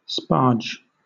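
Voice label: "sparge", British English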